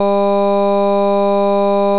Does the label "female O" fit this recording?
Yes